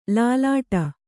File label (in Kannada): "ಲಾಲಾಟ", Kannada; lālāṭa; noun